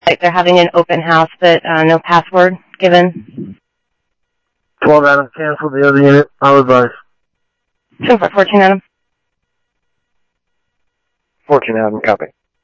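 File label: police department, scanner audio; PHOENIX PD-200 A Phoenix PD; ThompsonPeakHigh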